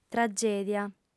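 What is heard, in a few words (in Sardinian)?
"tragèdia", female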